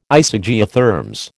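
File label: "Isogeotherms", American English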